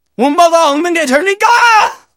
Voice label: scout negative vocalization